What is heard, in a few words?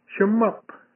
"Shuumaap", Kumeyaay; Asleep (Plural Form)